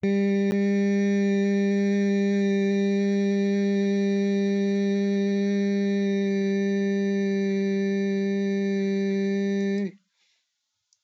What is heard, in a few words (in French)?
"uii", Voyelles; TEACH